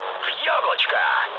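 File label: Russian bomb success mood med, speech; War Thunder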